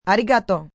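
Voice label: Thank You Japanese F, female, Japanese; Etiquette; VoiceBox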